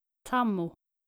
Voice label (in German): "tammo", nordische jungennamen